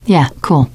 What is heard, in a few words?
you are welcome, teen girl; survivor voice